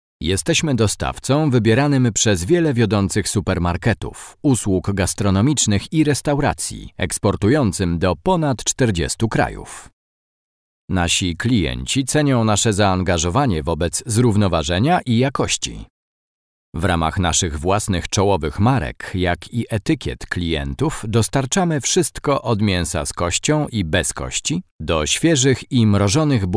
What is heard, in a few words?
polish voice corporate